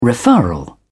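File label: "referral", English, uk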